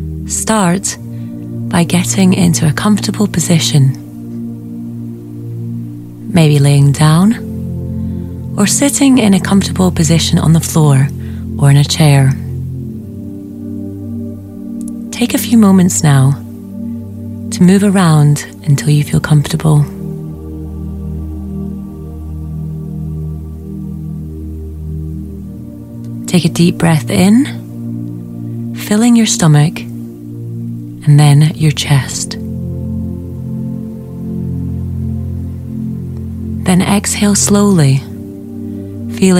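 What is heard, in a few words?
English Scottish, female, meditation; accent of scotland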